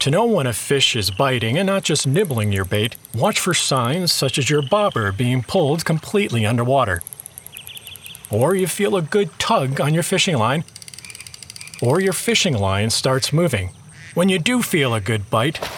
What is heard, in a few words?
eLearning fishing youth oriented easy going